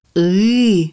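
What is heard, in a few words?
letter "Y", Russian; bukvar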